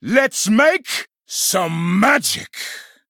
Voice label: Male Blood Elf Play